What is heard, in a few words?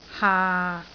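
"haa6", Cantonese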